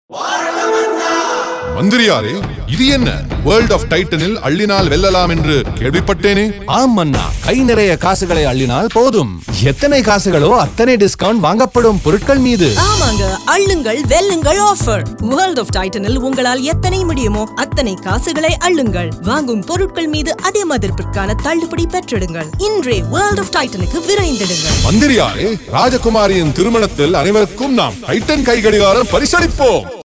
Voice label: Tamil Male